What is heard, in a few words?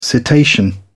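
"cetacean", British English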